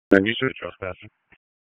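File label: Raleigh Police Northeast District Dispatch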